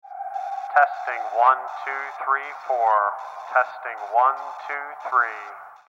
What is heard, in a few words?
Test Announce, Pa Test Announcement; Pa Announcement With Long Reverb And Static , " Testing 1 , 2 , 3 , 4 "; Voice; PA Announcements